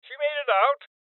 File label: Male Old; Rebel Cops